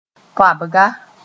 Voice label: "toabga", moore

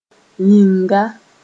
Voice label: "yĩnga", Mooré

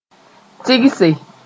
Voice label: "tɩgse", moore

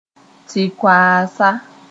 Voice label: "tɩ-koaasa", Moore; chemist

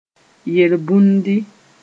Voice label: "yel-bũndi", moore

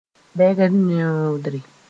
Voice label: "bɛgd neoodre", Mooré

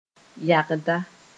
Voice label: "yagda", Moore

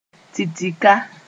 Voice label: "titika", Moore; big tree sp

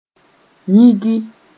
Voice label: "yĩgi", Mooré

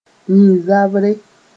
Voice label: "yĩn-zabre", Mooré

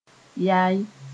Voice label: "yaẽ", Moore

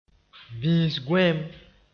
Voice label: "bĩis-gõeem", moore

